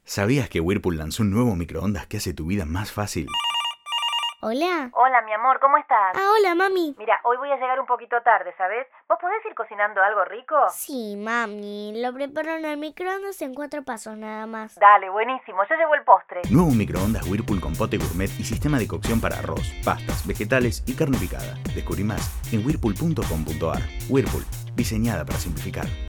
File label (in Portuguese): whirpool microondas spot